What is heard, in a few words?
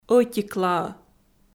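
"ӧтиклаӧ" Komi, female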